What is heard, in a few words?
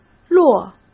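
"落", Mandarin Chinese; chinese-flashcards; hsk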